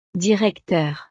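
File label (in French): "directeur", French; profesiones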